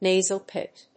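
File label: "nasal pit", English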